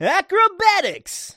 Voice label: announcer acrobatics; Nick Summer Sports Stars

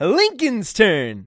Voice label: announcer; lincoln; Nick Summer Sports Stars

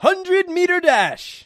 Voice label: announcer stilt; Nick Summer Sports Stars

